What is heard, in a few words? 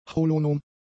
"holonom", German; adjectives